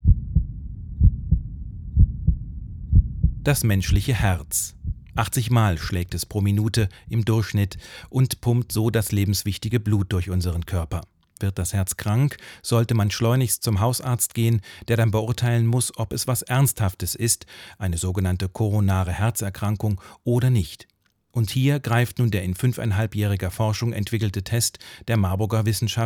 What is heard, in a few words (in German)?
reportage herzerkrankungen